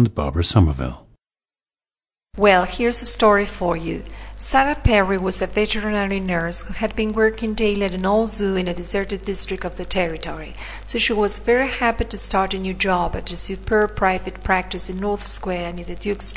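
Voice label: argentina, dialects of English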